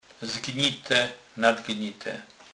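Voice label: "zgnite", Polish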